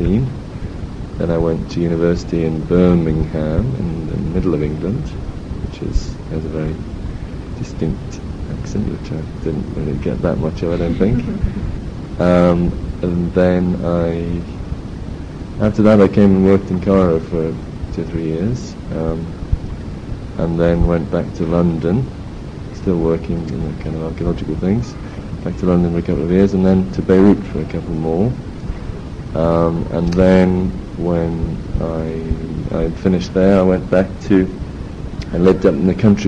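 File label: wales, International Dialects of English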